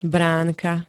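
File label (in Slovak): "bránka", Slovak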